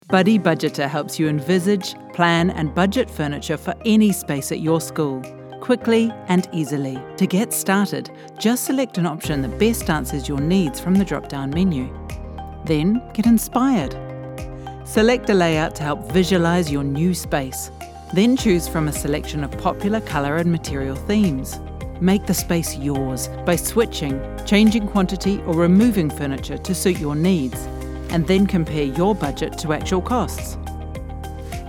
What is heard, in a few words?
explainer, en-AU; Buddy Budgeter